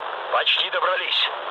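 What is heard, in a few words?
near waypoint, mood low, Russian; War Thunder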